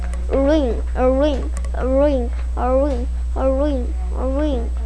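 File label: "ring", spoken word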